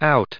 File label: "out", us